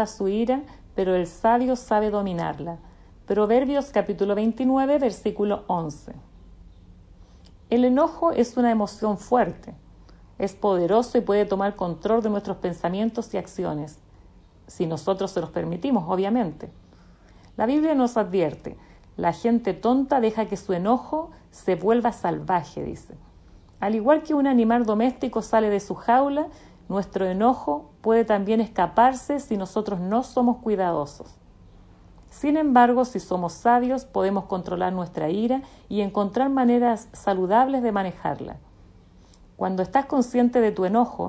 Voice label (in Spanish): devocional